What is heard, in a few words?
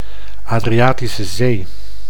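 "Adriatische zee", Dutch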